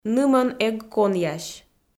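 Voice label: "нымӧн эг конъясь", Komi, female; audio dict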